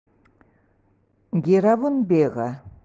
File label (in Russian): Приложение январь эвенкийский